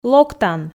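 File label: "локтан", Komi, female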